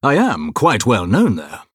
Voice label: Male Blood Elf MIRROR GREETINGS

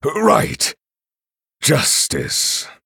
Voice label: Male Night Elf; Kurtrus

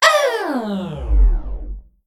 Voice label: Female Mech Death

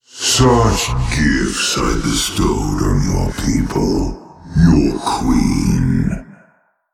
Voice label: Male Old God

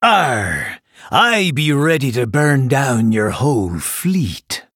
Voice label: Male Blood Elf; PIRATE DAY

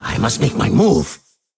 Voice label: Male Ethereal Hero Time

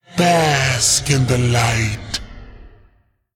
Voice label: Male Elemental Attack

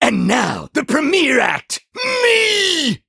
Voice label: Male Imp Play

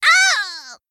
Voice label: Gnome Emote Death

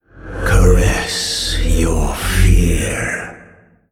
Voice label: Male Old God In Play

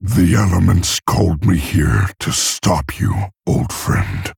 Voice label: Male Orc; Story Hero Thrall; Story Jaina Mission7 Intro

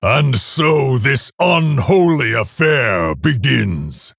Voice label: Male Orc Start

